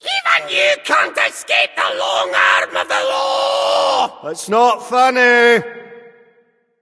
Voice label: taunt directed nemesis; smite; tyr